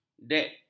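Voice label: "dek", Malay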